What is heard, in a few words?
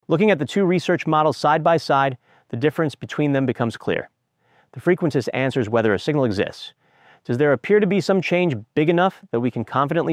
narration